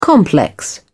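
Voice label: "complex", British English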